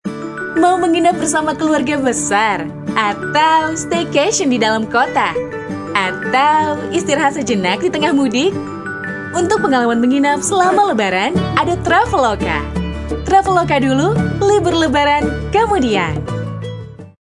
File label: INDONESIA CASUAL